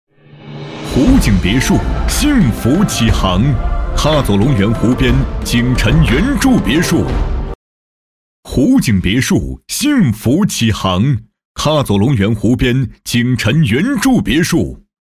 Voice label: 男 地产

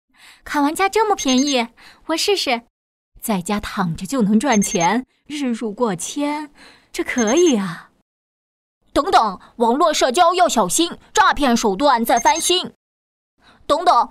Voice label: 角色 女声 童声 试音

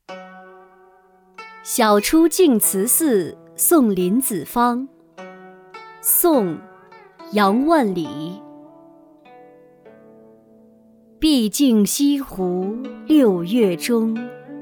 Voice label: 女,读物 古诗词

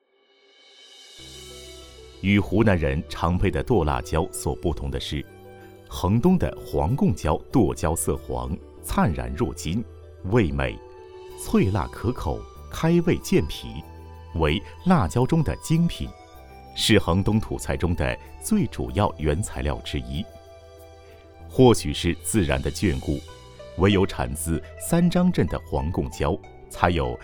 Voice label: 男 纪录片 土菜; 衡东土菜

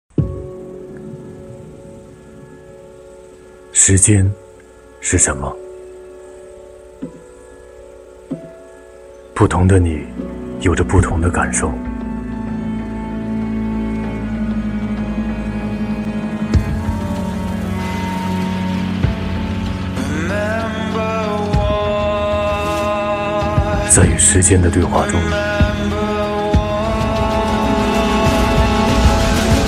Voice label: 男 汽车广告; 福特

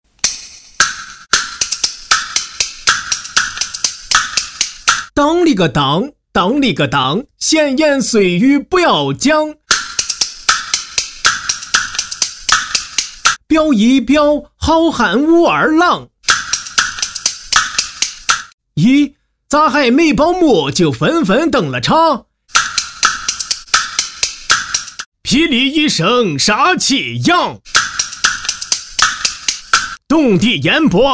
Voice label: 男 戏曲 山东快书; 闲言碎语不多讲

